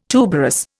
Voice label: "tuberous", Indian